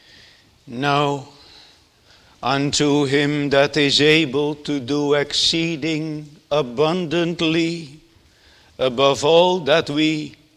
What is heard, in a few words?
Sermon; Jesus' Mercy to One Taken with Palsy (2)